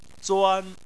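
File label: "zuan" first tone, chinese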